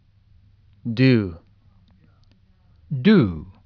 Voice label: "DO", USUK Pronunciation